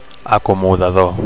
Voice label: "acomodador", Catalan; usher